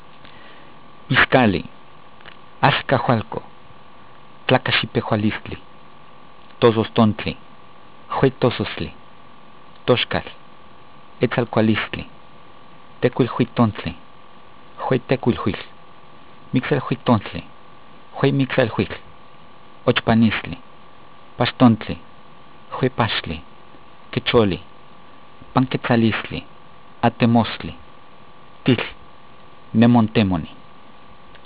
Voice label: nahuatl month